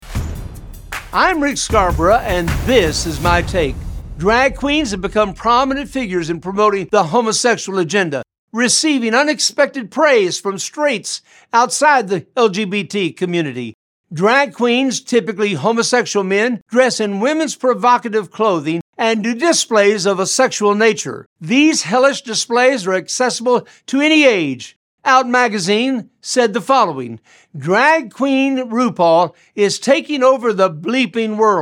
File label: Radio Spot; Drag Queen